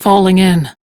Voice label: Crew Follow, Steel Meridian Female; Dialog; en; Warframe; RailjackCrew